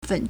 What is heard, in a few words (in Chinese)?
"fen3", Chinese